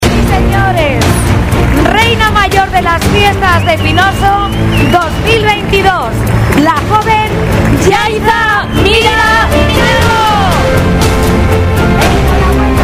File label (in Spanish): ANUNCIO REINA